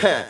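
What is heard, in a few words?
"huh", vocal sample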